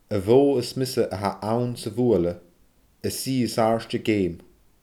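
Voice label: "a bhoo as miosa a tha ann sa bhuaile is i as aairde geum", Scottish Gaelic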